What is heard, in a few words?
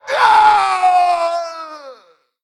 Female Tolvir Death